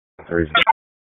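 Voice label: Police Southwest District Tac, radio; p25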